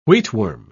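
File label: "wheatworm", British English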